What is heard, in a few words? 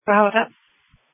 Sheriff Dispatch